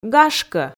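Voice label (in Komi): "гашкӧ", Komi-Zyrian, female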